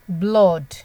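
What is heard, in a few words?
"blood", Nigerian Pidgin, female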